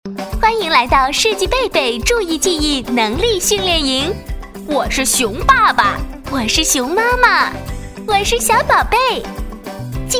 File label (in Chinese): 女 儿童 轻松 系统提示, 国语女声配音